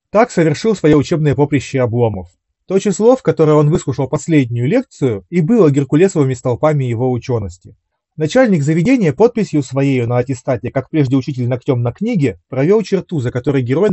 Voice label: Mic; Запись с микрофона гарнитуры; ugreen hitune t3 pro